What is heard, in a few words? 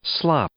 "slop", English; verb